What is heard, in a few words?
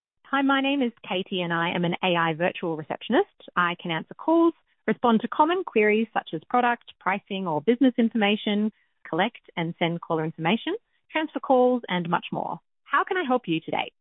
AI Receptionist Recording; choosing between ai phone answering or live receptionists